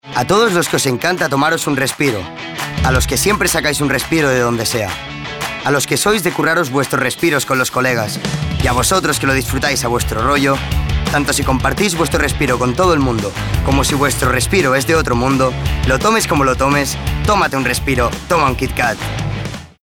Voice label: male spanish chocolate comercial; ideasonora